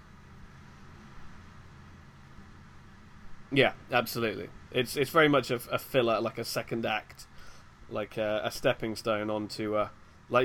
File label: Podcast Voice Sample No NR